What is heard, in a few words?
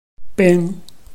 "bing1", Chinese